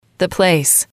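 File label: "the place", English, female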